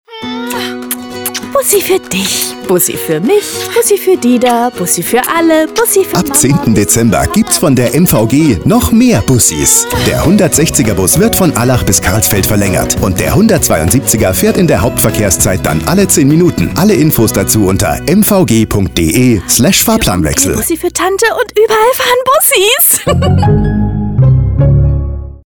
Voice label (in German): radiospot; mvg; dachau